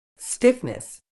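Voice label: "stiffness", British English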